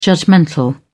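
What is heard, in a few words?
"judgmental", British English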